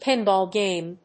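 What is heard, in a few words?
"PINBALL GAME", English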